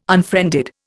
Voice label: "unfriended", Indian

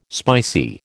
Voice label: "spicy", American

